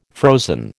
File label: "frozen", American